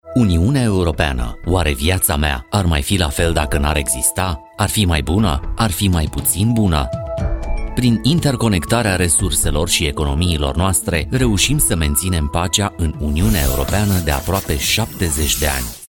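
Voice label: Romanian corporate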